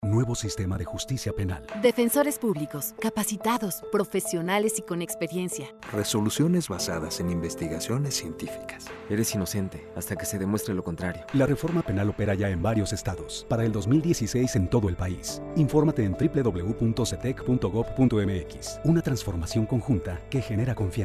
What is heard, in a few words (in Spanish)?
Spot Radio; SETEC; Defensores Públicos; Resoluciones basadas en Investigación Científica